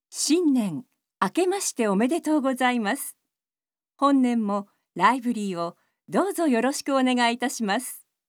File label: Narration